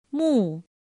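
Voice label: "mù", Chinese; pinyin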